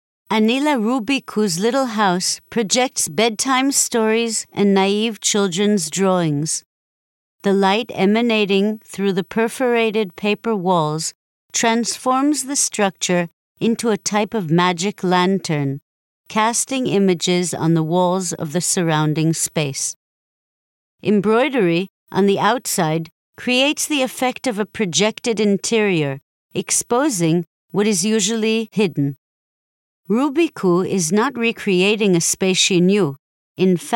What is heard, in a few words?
audioguide